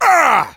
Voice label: hank hurt